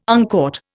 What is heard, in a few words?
"uncaught", Indian